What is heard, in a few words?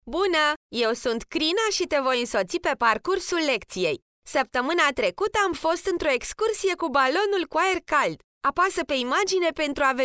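romanian female e-learning; voice demos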